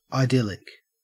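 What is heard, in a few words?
"idyllic", Australian English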